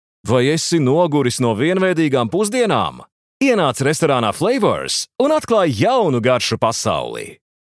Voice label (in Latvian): Reklama LV